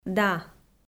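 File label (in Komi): "да", Komi, female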